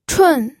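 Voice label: "chun", pinyin, fourth tone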